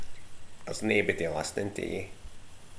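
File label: "naebody", slang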